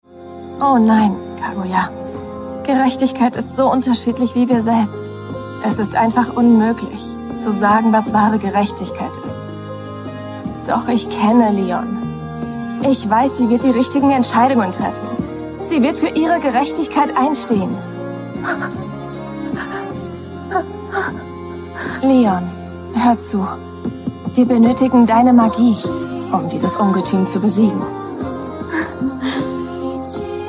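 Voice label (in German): Synchron brüchig gefühlvoll entschieden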